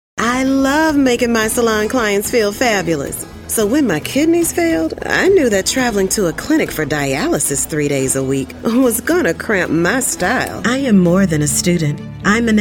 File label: African American